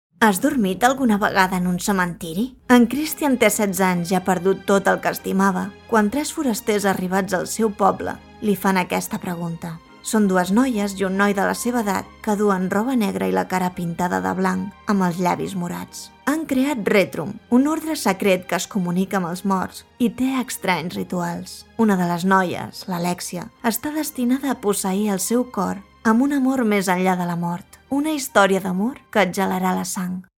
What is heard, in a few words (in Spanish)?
muestras catala